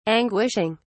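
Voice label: "anguishing", British English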